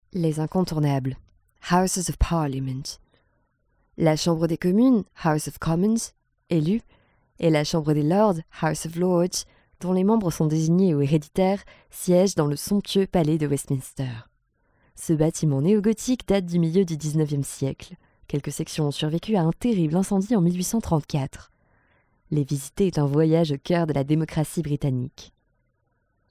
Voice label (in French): FR London tour